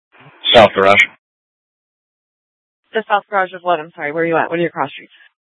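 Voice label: police dispatch, Scottsdale PD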